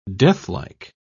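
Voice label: "deathlike", British English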